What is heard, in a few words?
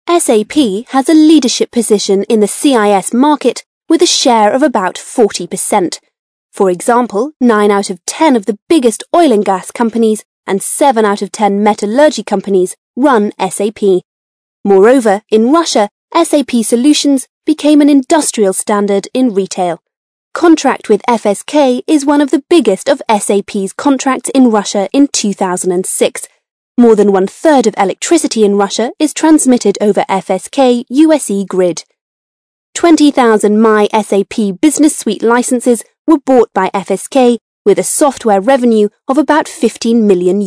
british english female